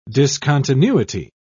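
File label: "discontinuity", English, British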